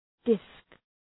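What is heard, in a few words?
"disk", English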